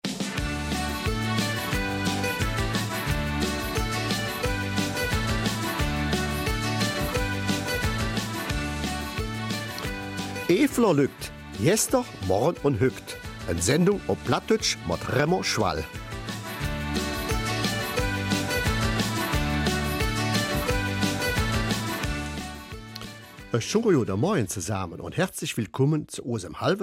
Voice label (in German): Eifeler Mundart; Amels Bürgermeister